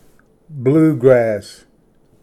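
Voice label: "bluegrass", English; ᎧᏁᏍᎦᏬᏗ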